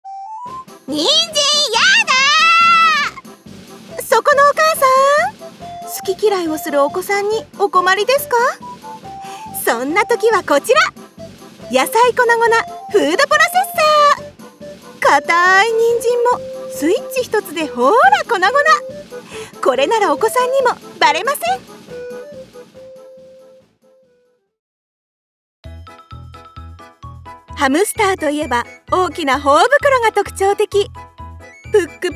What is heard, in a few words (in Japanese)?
ナレーション【明るいナレーション】; ◇出身地：長野県